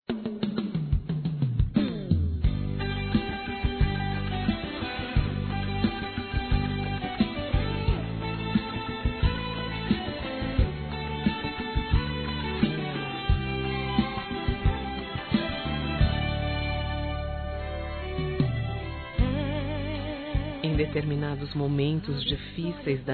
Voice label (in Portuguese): Mensagem Para Pai Feminino, Gospel; Telemensagens; Telemensagens 2017